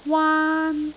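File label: "waan1", Cantonese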